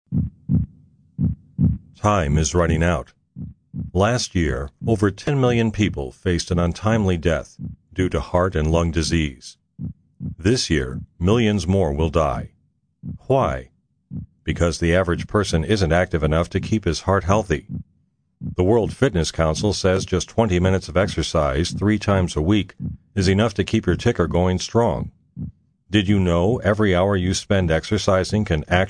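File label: public service announcement; Public Service Announcement, Heart Association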